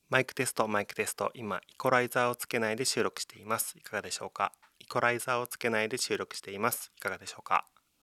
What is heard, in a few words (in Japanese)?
EQなし